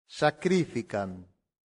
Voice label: "sacrificant", latin; santillana